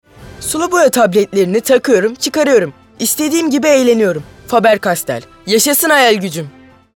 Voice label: Reklam

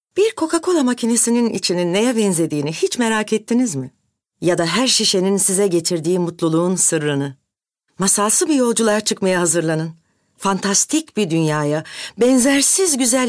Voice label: Reklam Romantik Duygusal

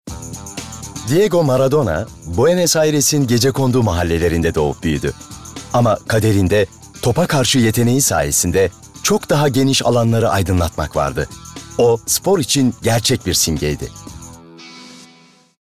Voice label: Belgesel

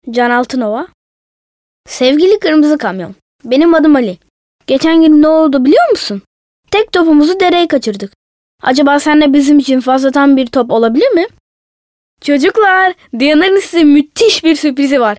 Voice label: Reklam

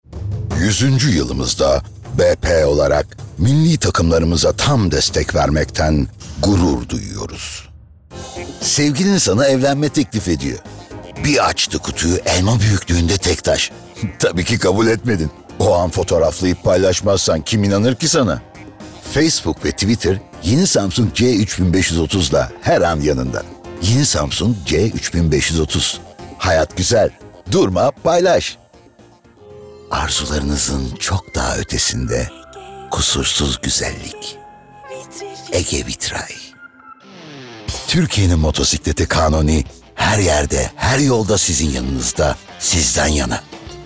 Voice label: Reklam

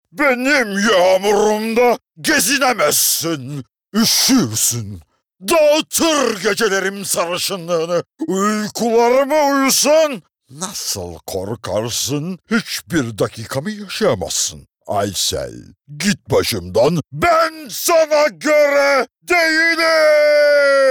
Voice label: ANIMASYON, tr

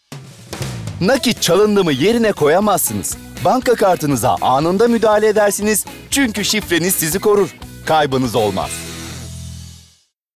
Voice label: Komik

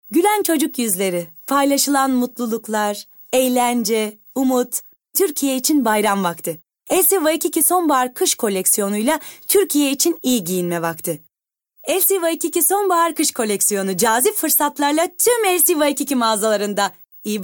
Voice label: REKLAM

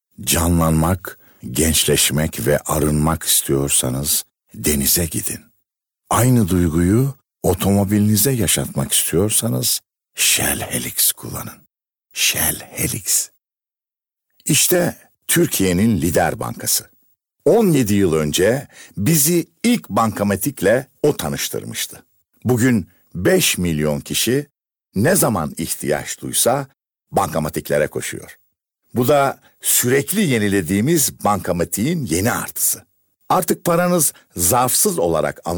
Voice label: REKLAM

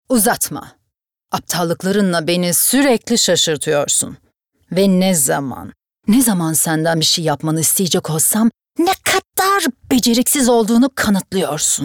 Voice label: ANIMASYON

